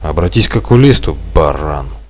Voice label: friendly fire, Russian